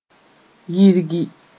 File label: "yirgi", Mooré